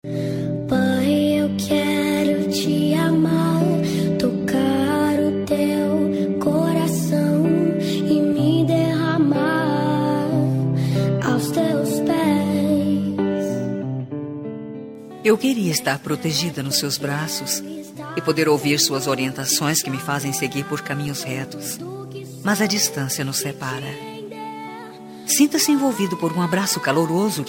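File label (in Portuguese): dia dos pais female distante, voz feminina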